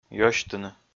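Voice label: "ёсьтыны", Komi, male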